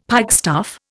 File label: "pikestaff", Indian; Dictionary